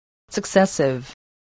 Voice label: "successive", spelling

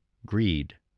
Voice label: "greed", spelling

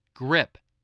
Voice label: "grip", spelling

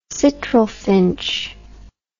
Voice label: "citril finch", English

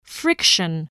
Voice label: "friction", English voice, spelling

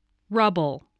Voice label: "rubble", English

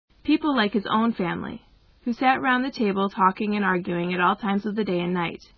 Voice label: M

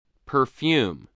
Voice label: "perfume", English, voice

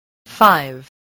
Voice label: "five", spelling

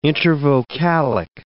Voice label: "intervocalic", English

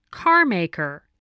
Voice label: "carmaker", spelling

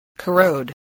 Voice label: "corrode", voice spelling

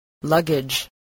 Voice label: "luggage", spelling

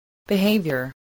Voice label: "behaviour", English

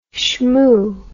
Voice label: "schmoo", English

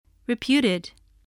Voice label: "reputed", English

